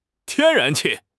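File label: "天然气", Mandarin Chinese; HSK6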